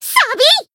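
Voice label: finish, Japanese; myungwha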